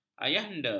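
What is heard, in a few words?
"ayahanda", Malay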